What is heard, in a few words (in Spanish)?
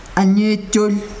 pequeño, yanesha